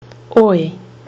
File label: vowel in choice, kiwi english